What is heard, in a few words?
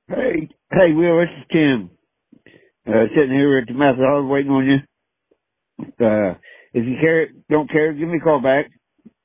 Voicemail